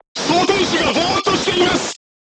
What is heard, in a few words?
voice message charger contused, crew tanks japanese